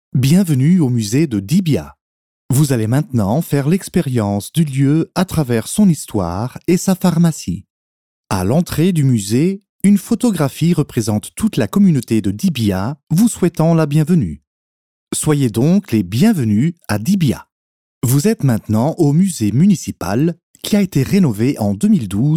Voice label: French Audioguide VO